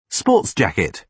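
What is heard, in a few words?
"sports jacket", British English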